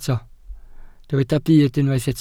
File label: "byd", Welsh